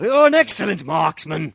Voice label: strike, Commentators

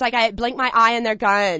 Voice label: split blink gone, Commentators

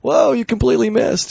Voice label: miss, Commentators

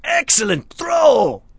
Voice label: excellent throw, Commentators

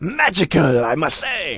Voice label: magical, Commentators

